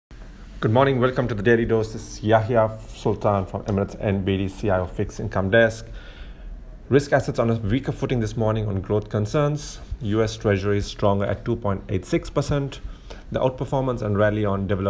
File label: voice note; fixed income